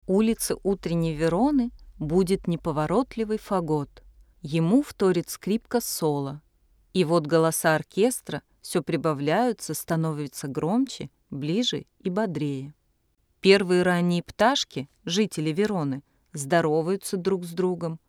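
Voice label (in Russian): kommentarij, commentary; ulica prosypaetsja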